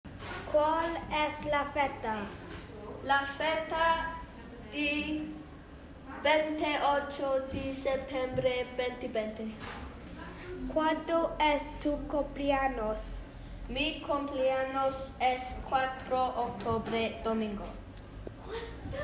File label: Spanish Conversation